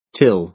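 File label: "till", American English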